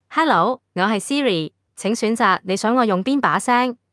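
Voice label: Hong Kong Chinese; iOS Sounds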